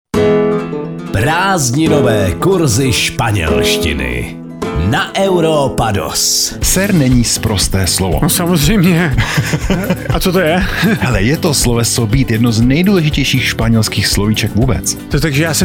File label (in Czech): spanelstina spanelstina